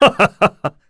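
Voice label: Vox Happy, korean; Bernheim; kingsraid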